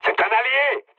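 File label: player friendly fire, mood low, French; War Thunder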